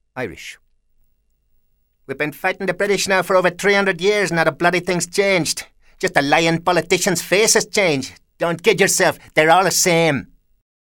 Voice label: IRISH NORTHERN